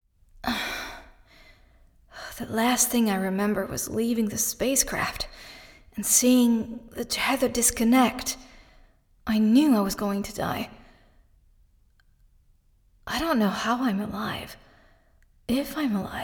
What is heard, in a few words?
VIDEO GAME English